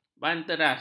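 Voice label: "banteras", Malay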